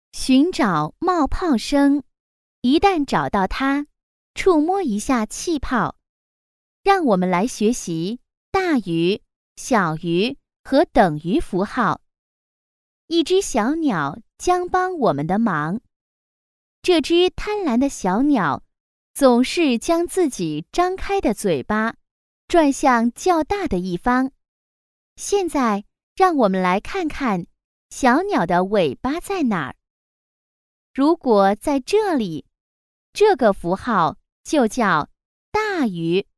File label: chinese mandarin female; dictor